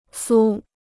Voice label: "酥", Chinese